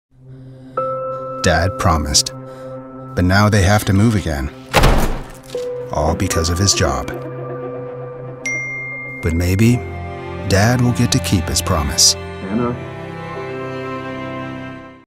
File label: tv, Commercials; Indeed